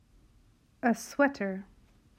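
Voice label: "A sweater", English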